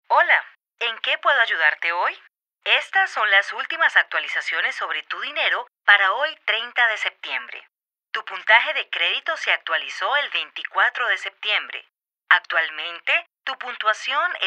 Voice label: Phone System